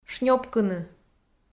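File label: "шнёпкыны", Komi, female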